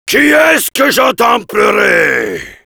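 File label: jeers, French; Heavy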